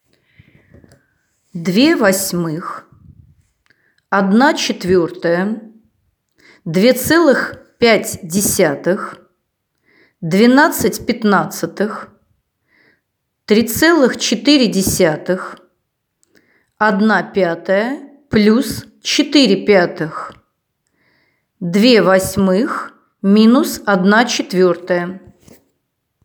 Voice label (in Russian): Диктант